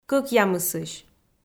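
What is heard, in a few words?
"кӧкъямысысь", Komi-Zyrian, female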